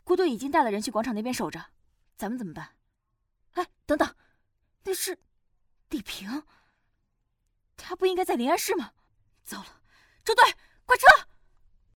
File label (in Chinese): 青年女潜伏女警